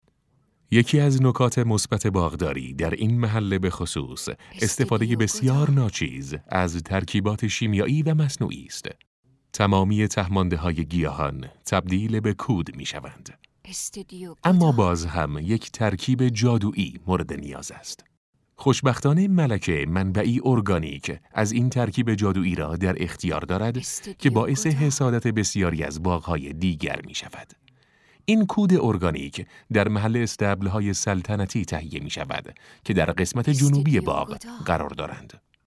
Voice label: documentary